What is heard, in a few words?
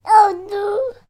human baby toddler male 15 months vocal "oh no"; sound effects one